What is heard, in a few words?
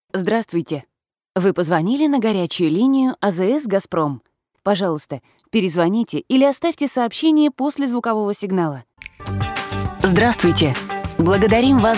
ivr